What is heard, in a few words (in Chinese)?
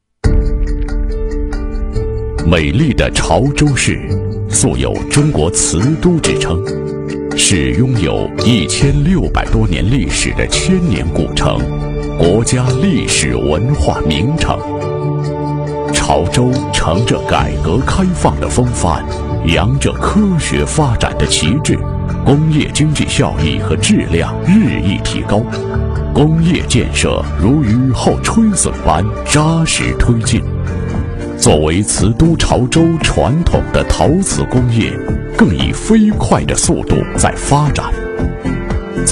男 城市介绍